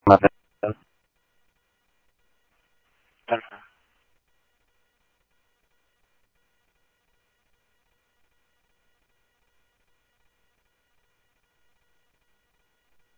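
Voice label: Mesa Police Department, scanner audio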